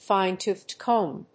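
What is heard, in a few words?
"fine toothed comb", English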